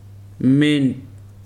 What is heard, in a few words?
"short", Weri